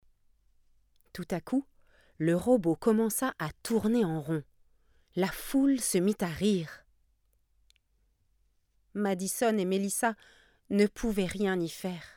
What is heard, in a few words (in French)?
robots fr; marmite